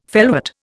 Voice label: "felwort", Indian

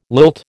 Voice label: "lilt", American

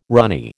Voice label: "ranee", American

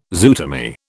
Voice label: "zootomy", British

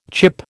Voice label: "chip", American

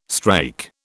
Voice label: "strake", British

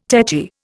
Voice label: "tetchy", Indian

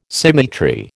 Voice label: "symmetry", American

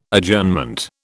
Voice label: "Adjournment", British

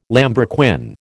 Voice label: "lambrequin", American

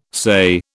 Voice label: "SAYE", British

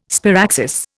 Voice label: "sparaxis", Indian

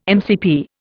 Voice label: "MCP", Indian

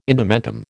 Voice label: "indumentum", American

